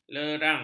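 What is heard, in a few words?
"lerang", Malay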